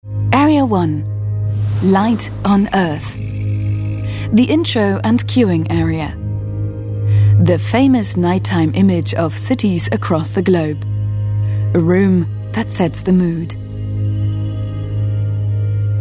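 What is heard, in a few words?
British documentary, englisch; sprecher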